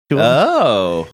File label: drawn out oh